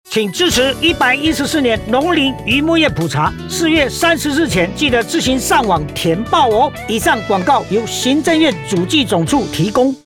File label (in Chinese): 廣播 國語版; 網路填報篇